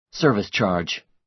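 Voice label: "service charge", American English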